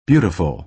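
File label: "beautiful", American English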